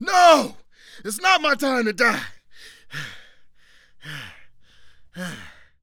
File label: Panic; zps; Humans; HumanCop